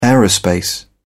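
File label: "aerospace", English, uk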